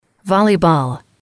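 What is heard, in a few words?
"volleyball", English, female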